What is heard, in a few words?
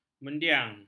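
"mendiang", Malay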